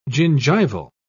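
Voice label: "gingival", English, British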